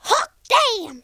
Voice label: "perfect", Cowgirl; speech